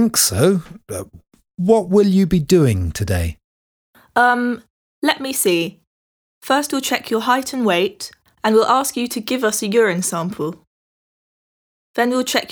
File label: Conversation; Bedside Manner Beginner